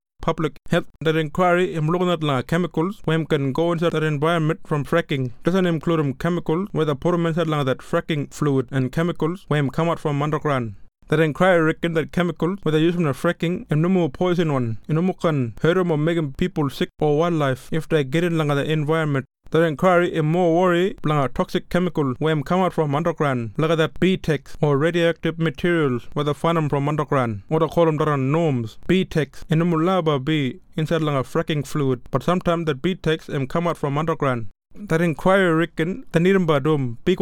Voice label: Kriol; PUBLIC HEALTH